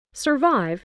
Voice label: "survive", English